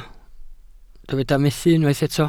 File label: "methu", Welsh